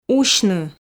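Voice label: "усьны", Komi, female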